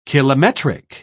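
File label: "kilometric", British English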